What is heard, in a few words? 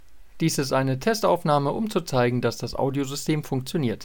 ad test